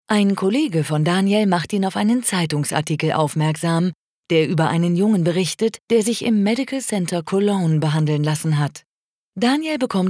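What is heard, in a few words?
German informative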